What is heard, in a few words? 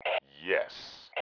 "YES", male